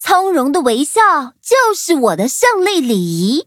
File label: loading, zh-hant; Cookie Run